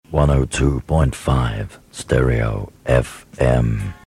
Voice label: stereo fm Radio; 102.5; Horizon; Horizon Radio Jingles 1981 to 1985